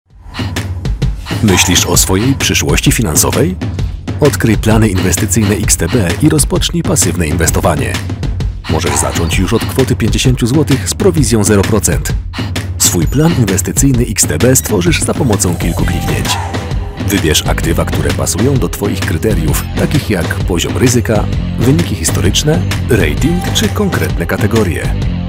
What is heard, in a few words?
polish explainer videos